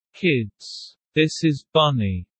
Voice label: low; Animal friends